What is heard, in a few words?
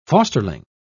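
"fosterling", English, British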